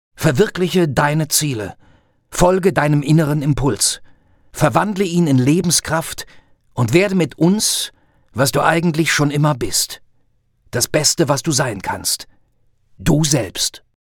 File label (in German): W Fremdtake Dynamisch